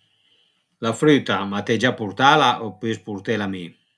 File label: Piedmontese (Borgosesia, Province of Vercelli); La früta ma t’ei già purtala o pös purtela me?; AlpiLinK